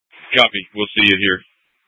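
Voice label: Incident Tactical, scanner audio recording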